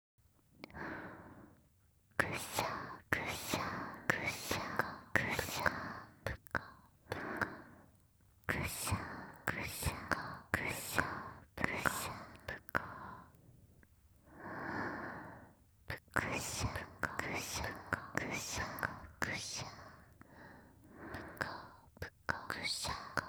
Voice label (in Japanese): 両耳オノマトペ なでなでSE無; 音量が小さくなる版